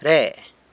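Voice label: "re", here, San Lucas Quiaviní Zapotec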